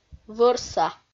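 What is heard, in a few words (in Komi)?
"вӧрса", Komi, female; audio dict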